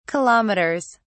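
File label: "kilometers", British English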